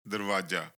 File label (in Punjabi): "dharvaajaa", Punjabi